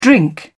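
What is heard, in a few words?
"drink", British English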